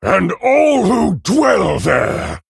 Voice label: Male Orc Ogre, MIRROR GREETINGS; en

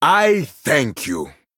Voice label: Male Troll Thanks; en

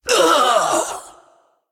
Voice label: Male Naga Death, zh

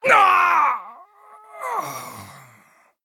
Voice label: Death, zh

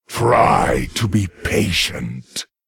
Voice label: Male Lich TRIGGER Frost Nova; en

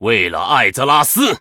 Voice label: Attack, zh

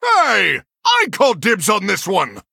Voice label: Male Human MIRROR START; en

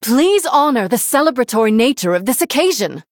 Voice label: Female Human Threaten

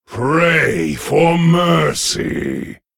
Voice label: Male Lich TRIGGER Frostbolt; en

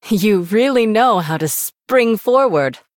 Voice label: Female Human Well Played; en

